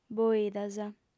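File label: "boidas", female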